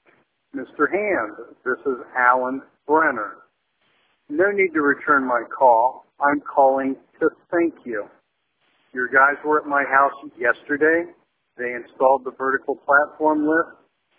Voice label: voicemail